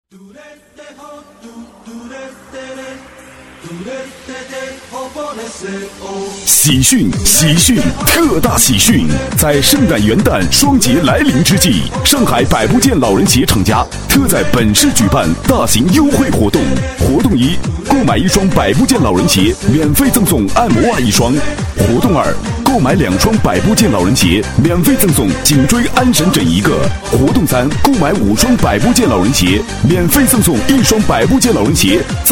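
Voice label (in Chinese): 男厚重大气-广告-圣诞元旦节日活动, 国语男声配音; 百步健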